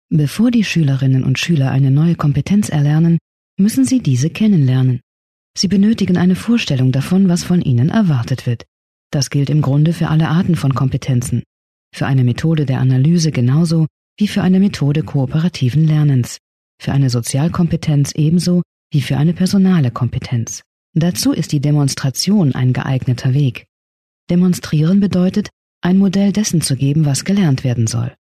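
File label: German, female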